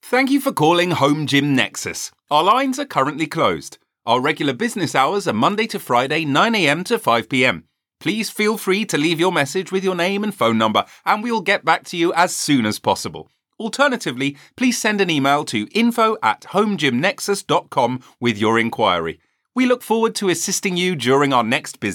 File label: english british ivr